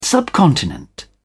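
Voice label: "subcontinent", British English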